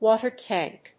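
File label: "water tank", English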